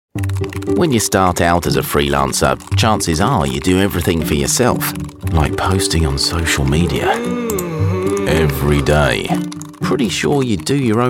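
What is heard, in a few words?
explainer, Kent Accented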